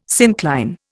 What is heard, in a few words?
"syncline", Indian